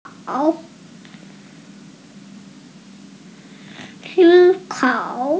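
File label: "si1kao3", Mandarin Chinese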